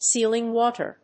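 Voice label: "sealing water", English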